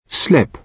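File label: "slip", British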